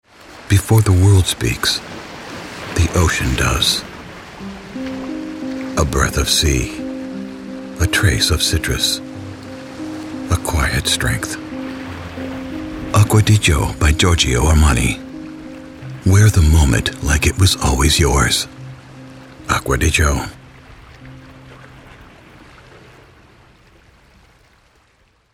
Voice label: Radio Commercials, Older Male, warm & engaging Male Voice Over; Pandora Ad - Armani Aqua Di Gi; Aqua di Gio